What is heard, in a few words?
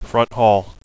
front hall, radio bot; cstrike